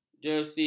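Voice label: "jersi", Malay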